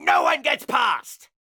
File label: Pirate Elite Male Chokepoint Line; NPC; Heist Thug Chokepoint